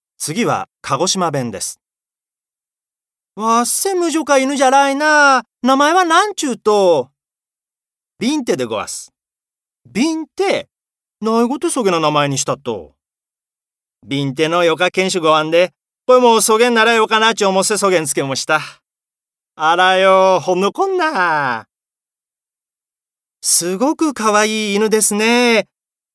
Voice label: 方言

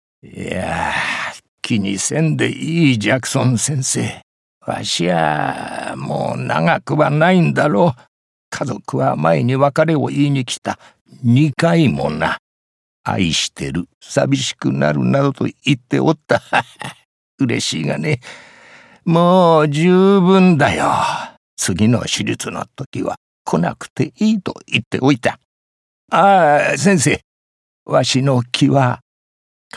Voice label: 老人

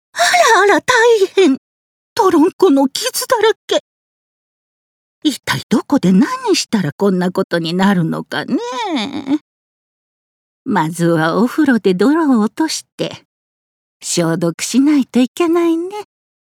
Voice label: おばあちゃん

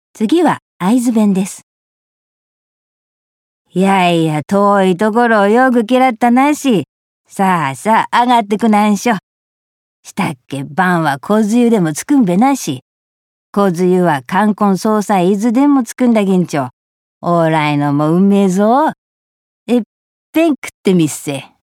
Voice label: 方言

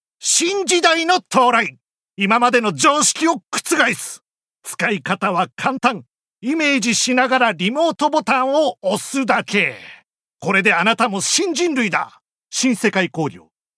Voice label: CMナレ

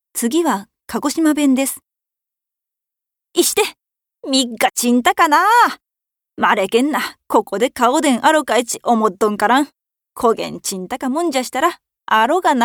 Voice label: 方言

